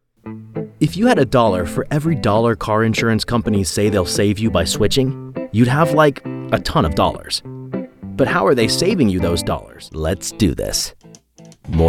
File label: Rapid Fire Commercial Demos